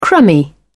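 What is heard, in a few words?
"crummy", British English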